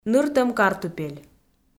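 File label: "ныртӧм картупель", Komi, female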